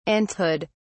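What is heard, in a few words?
"aunthood", British English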